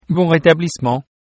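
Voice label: get well soon, French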